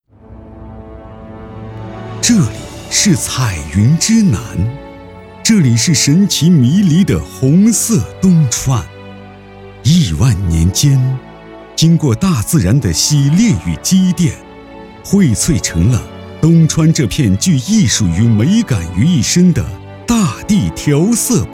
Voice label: 男 宣传片 厚重城市

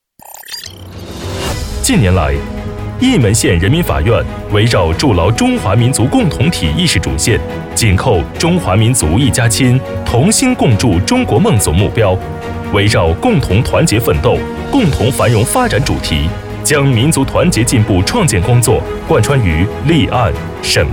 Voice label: 男 中文 新闻汇报类宣传

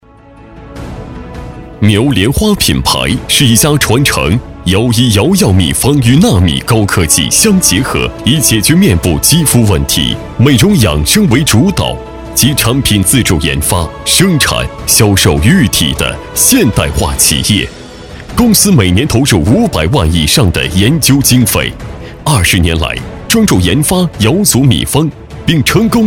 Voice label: 男-专题大气; 苗莲花

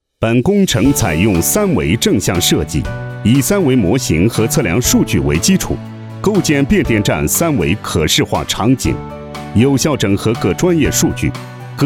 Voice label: 男 宣传片 三维工程设计

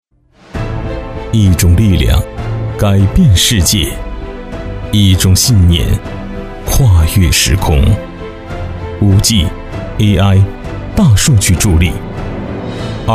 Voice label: 男 专题大气类

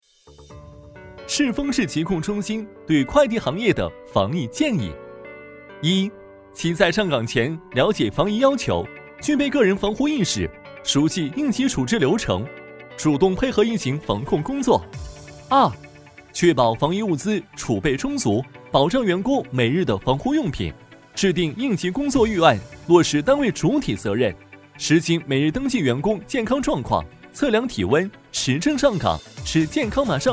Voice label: 男 年轻介绍; 防疫建议

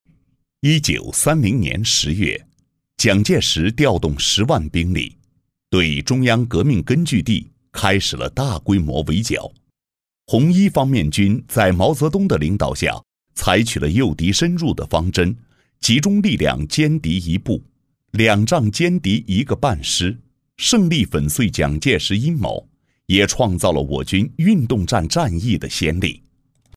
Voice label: 男-力量型纪录片; 第一次反围剿音频

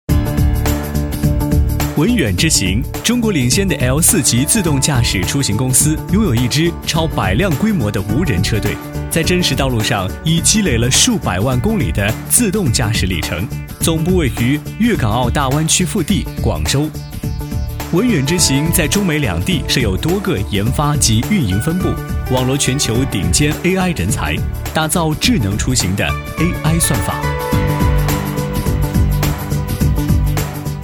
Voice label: 男 专题 科技感; 闻远知行

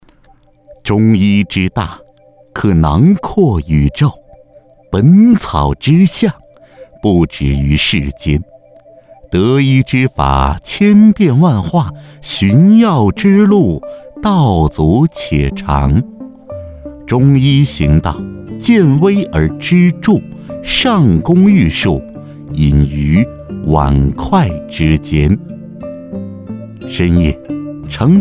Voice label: 男 舌尖风格记录片

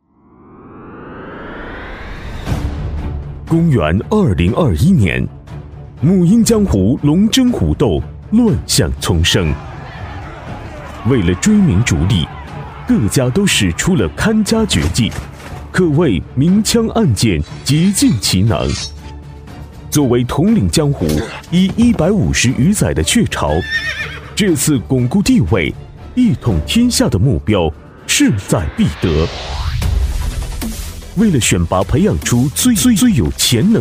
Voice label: 男 活动宣传

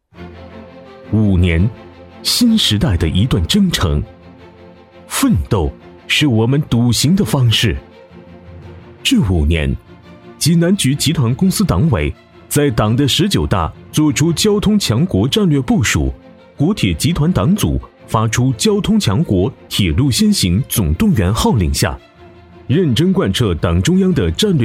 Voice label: 男-专题

